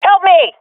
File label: request help, swat fem; vo; agents; Source Model Packs; Counter Strike Model Pack; CS2